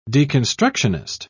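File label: "deconstructionist", British English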